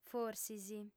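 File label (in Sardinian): "forsis", female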